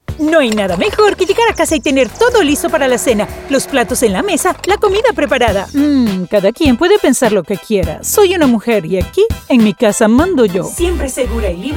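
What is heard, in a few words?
Latin American Spanish, Commercial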